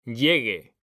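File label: "llegue", Spanish, male; mekanta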